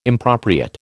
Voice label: "impropriate", American